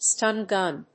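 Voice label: "stun gun", English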